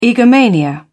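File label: "egomania", British English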